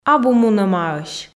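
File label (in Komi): "абу мунӧмаӧсь", Komi, female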